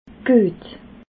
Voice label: "guet", alsace

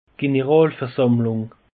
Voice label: "generalversammlung", alsace; peche

